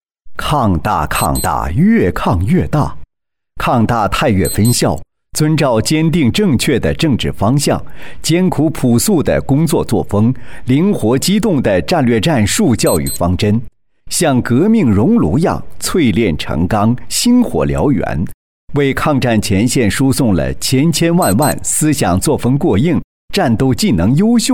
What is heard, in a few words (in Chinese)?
男, 学校专题, 大气力度; 成熟稳重; 沉稳大气; 抗大太岳分校